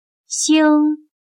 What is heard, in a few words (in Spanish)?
"星", Chino